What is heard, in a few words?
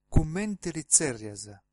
name, sardinian